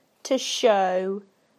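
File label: "to show", British English; PictureDictionary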